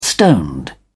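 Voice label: "stoned", English, British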